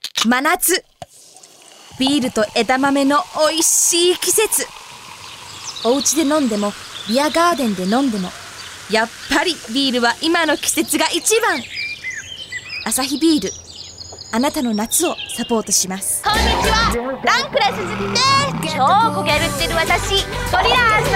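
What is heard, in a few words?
Japanese, General; GoLocalise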